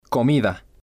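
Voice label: "comida", spanish, male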